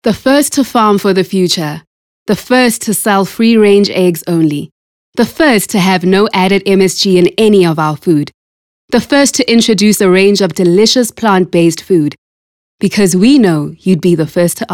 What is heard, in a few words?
English Soft Sell